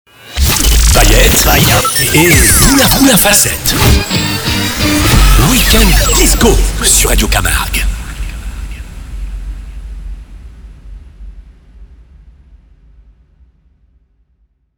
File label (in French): liner week end disco